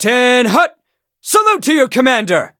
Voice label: touch, en; Cookie Run